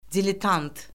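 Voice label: "diletant", Azerbaijani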